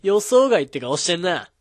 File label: magic, rpg voice